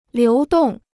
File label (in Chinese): "流动", Chinese